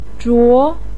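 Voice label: "zhuo2", Mandarin pinyin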